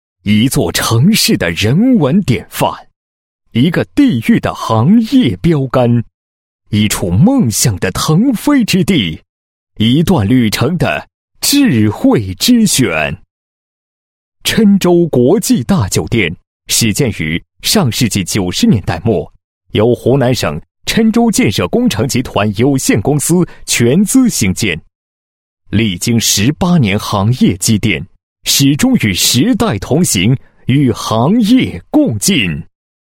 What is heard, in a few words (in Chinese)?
男 房地产专题 形象片, 配音案例; 郴州国际大酒店形象片